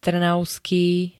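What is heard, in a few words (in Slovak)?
"trnavský", Slovak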